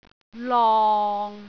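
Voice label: "long6", Cantonese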